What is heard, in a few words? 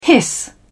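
"hiss", British English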